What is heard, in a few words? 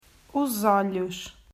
"Os Olhos", Portuguese